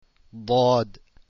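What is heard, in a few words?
dhaad, arabic letters; deen path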